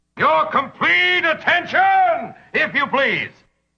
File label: "your complete attention", male; MALE MISC